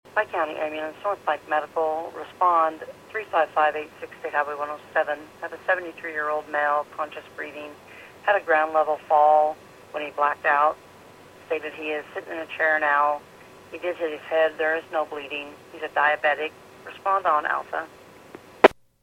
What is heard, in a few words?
PAGING